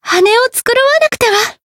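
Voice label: Voice win, Japanese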